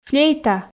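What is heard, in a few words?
"флейта", Komi, female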